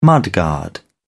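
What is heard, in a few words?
"mudguard", British English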